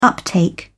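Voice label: "uptake", British English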